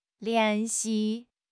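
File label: "lian2 xi4", Mandarin Chinese; HSK; HSK4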